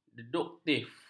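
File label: "deduktif", Malay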